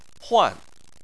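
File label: "huan", Chinese, fourth tone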